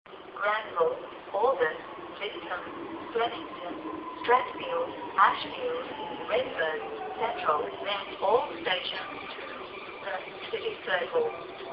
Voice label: Station Announcement; South Line; CityRail